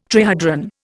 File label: "trihedron", Indian